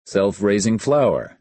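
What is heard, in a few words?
"self-raising flour", American English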